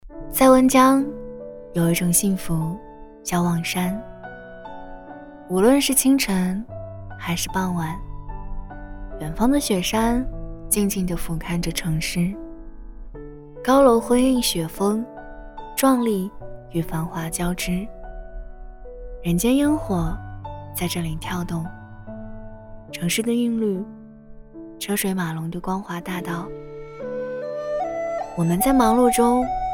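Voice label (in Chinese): 女国大音-【旅行类】知性; 文旅配音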